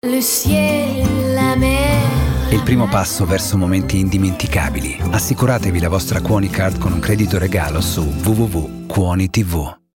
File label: TV Spot; Kuoni